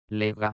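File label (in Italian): "lega", spagnola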